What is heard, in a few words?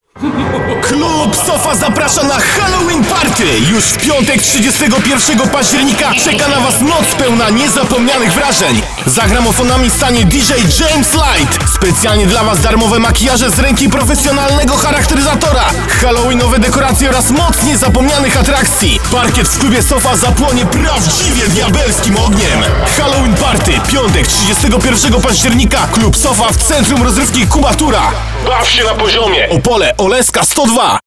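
Polish, male, commercial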